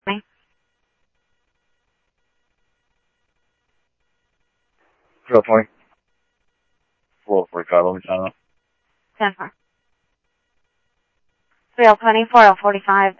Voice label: PD Police, scanner audio

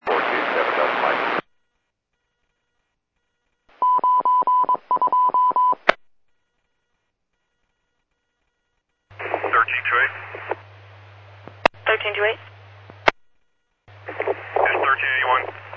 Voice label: Medevac, scanner; NATIV-HELO; NativeAirAmb

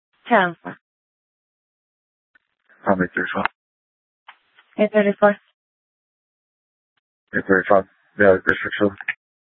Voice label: Sheriff, scanner P25

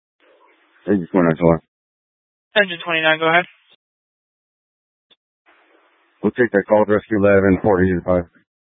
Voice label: Fire Dispatch

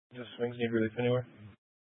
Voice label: Mesa PD Dispatch; 1 Fiesta Dispatch

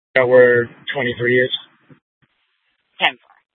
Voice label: Scottsdale PD Sth Dispatch, scanner

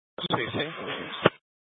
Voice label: Public Transit System Light Rail Ops, scanner; Valley Metro

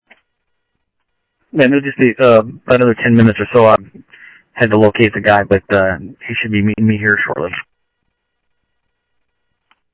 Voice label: Central Sheriff Central, scanner audio trunk

